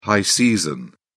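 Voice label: "high season", English, British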